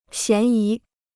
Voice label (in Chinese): "嫌疑", Chinese